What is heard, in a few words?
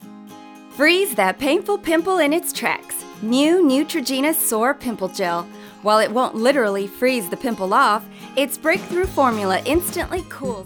voiceover female